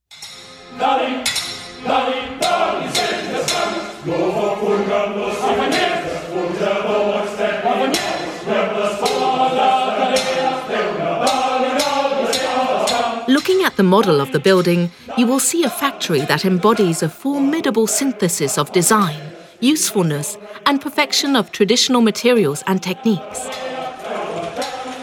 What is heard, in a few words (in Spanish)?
audioguia; fabrica textil engl